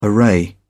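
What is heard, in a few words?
"array", British English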